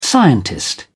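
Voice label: "scientist", British English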